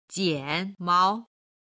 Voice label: "jianmao", chinese